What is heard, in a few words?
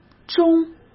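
"钟", Mandarin Chinese; chinese flashcards; hsk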